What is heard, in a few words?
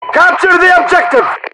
multiplayer opfor voices